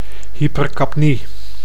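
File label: "hypercapnie", Dutch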